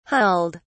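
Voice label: "hulled", English, uk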